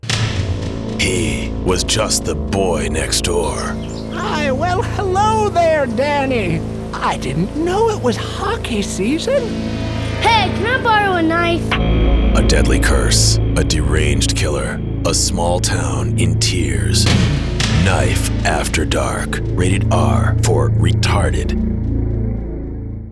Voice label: Commercial; Volume 3 - Emotion 98.3; Knife After Dark